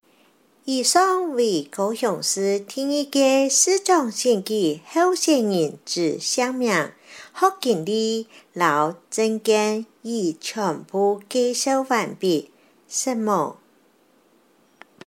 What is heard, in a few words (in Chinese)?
客語, 有聲選舉公報; 高雄市; 高雄市市長議員有聲選舉公報